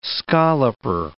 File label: "scalloper", English